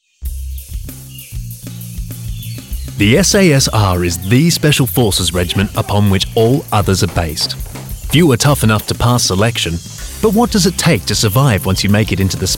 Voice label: english australian narration